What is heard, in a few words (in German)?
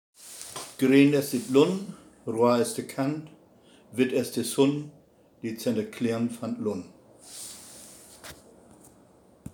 Wappenspruch Helgoland Auf Halunder; Expedition Uthlande